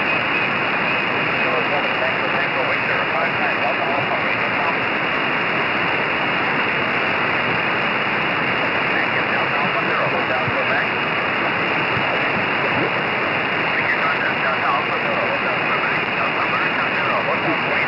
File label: usb